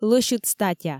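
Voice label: "лӧсьыд статя", Komi, female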